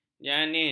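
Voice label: "janin", Malay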